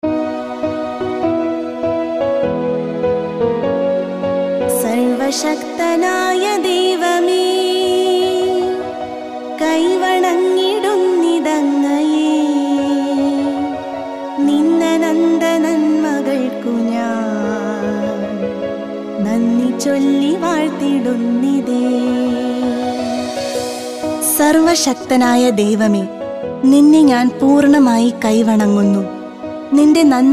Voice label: Malayalam